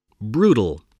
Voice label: "brutal", spelling

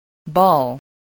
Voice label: "ball", english

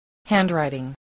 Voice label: "handwriting", English, spelling

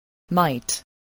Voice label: "might", voice, spelling

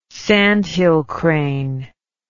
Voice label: "sandhill crane", English

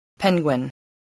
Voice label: "penguin", English, voice

